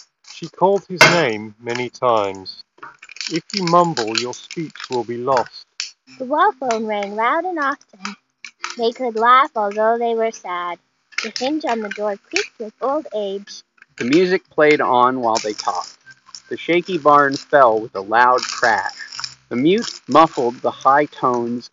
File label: JBL Tune 125 Office microphone sample; jbl tune 125tws